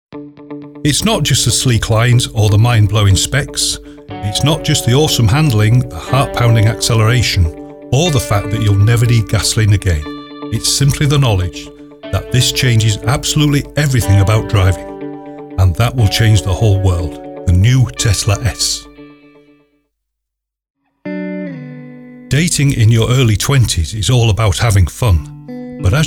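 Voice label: English British, male, commercial; voice demos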